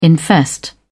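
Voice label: "infest", British English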